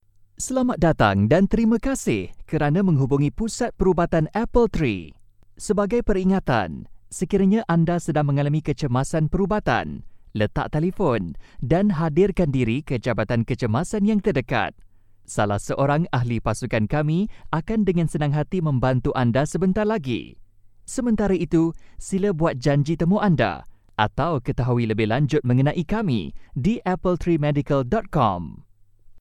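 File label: Malay, male, IVR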